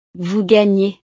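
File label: "vous gagnez", French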